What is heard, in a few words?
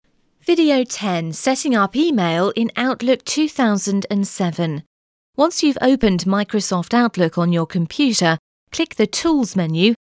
British